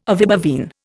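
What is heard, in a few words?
"ovibovine", Indian English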